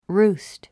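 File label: "roost", English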